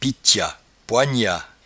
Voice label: "pitchat", patois jura